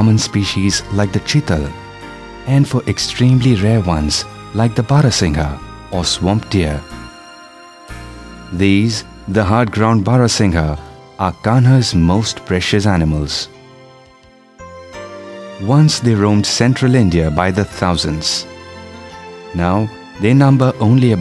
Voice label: Male VO Sample English Wildlife